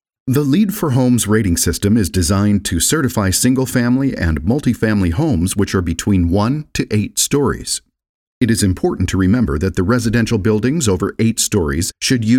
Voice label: english american audiobooks